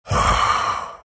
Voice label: happy; dota; earth spirit